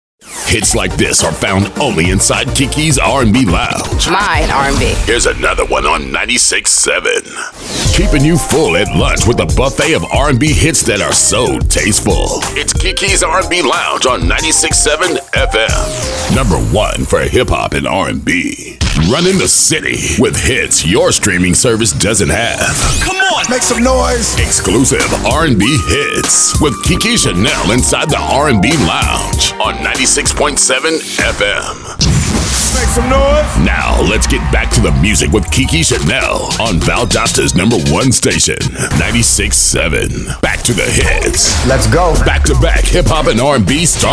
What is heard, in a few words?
Voiceover, men